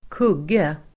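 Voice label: "kugge", Swedish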